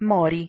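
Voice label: "mori", Proto-Indo-European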